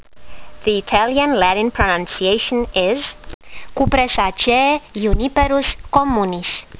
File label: latin; Juniperus communis